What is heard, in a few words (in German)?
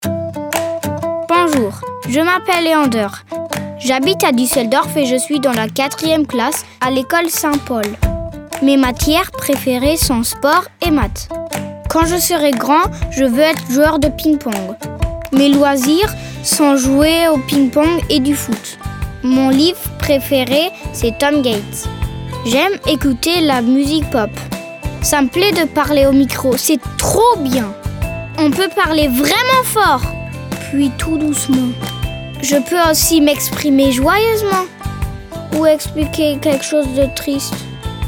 Selbstvorstellung FR